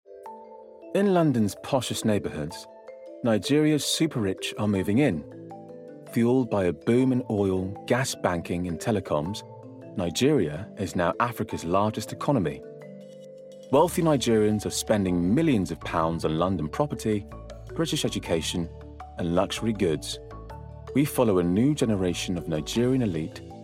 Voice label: Documentary Read